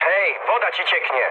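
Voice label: damaged water, mood med, Polish speech; War Thunder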